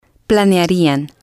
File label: "planearian", spanish